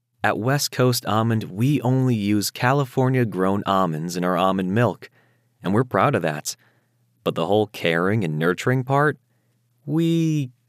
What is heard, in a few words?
Commercial